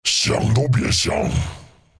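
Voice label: deny, chinese; dota2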